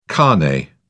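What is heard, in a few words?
"carnet", British English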